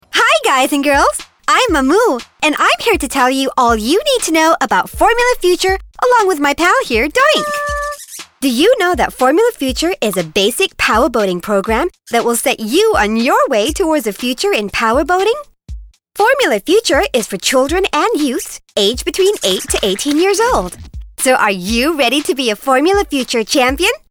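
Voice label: Powerboat Corporate Vid voice over sample English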